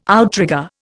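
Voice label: "outrigger", Indian English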